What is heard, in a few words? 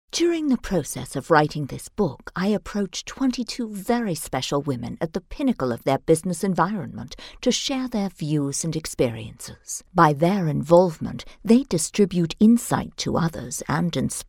english british podcasts